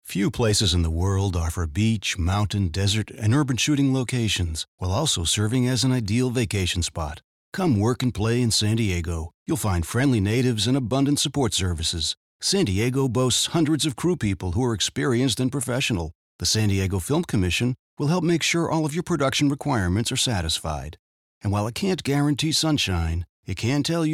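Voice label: us englisch, US English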